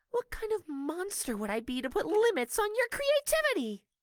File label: voice prologue; monomeow